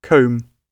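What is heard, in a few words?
"comb", British English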